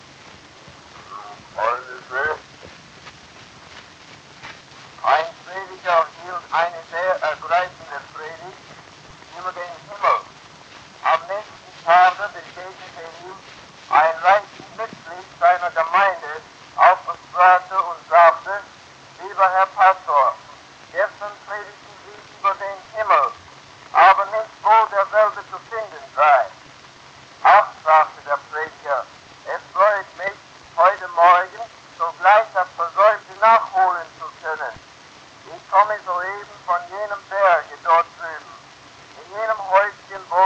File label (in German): Religioeser Monolog